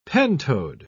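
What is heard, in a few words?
"pentode", British English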